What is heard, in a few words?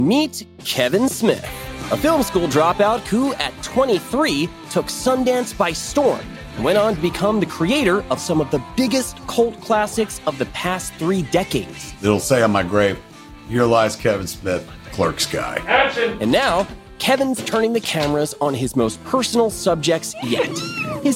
narration sample; celeb show-off